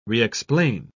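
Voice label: "re-explain", British English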